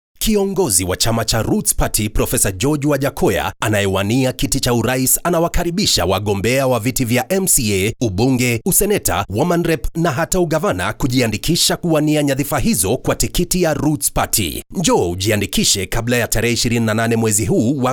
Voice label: english african political ads